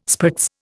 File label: "spritz", Indian